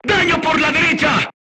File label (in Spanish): voice message shot right, Spanish